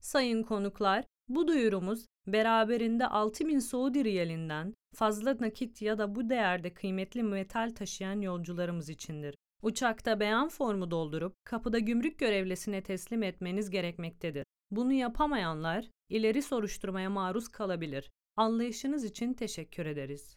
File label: Turkish Audio